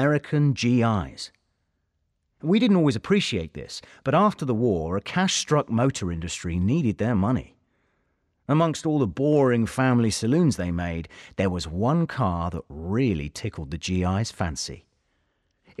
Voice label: British Sports Cars Narrative